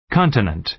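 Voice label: "continent", American English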